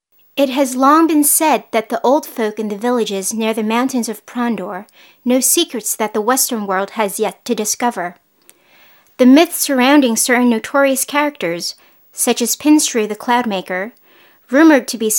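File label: F EN documentary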